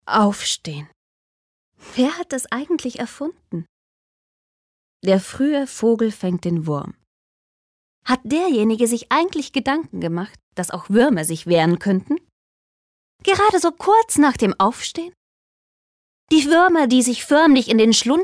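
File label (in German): Schauspiel Dynamisch; Der Fruehe Vogel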